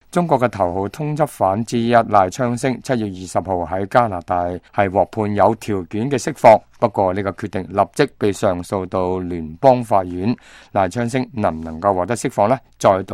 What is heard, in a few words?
cantonese